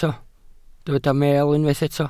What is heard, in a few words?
"meel", Welsh